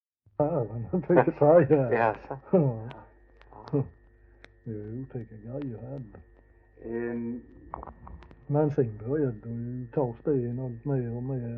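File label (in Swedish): Glimåkra Skåne, dialekter svenska sagor; Folkminnen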